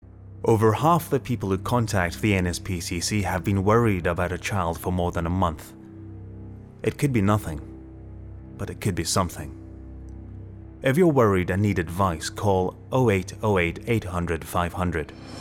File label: Commercial Mild Scottish Accent; RSPCC